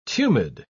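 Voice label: "tumid", British English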